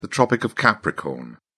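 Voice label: "tropic of capricorn", British English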